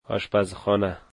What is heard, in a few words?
"kitchen", Dari